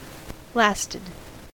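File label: "lasted", American English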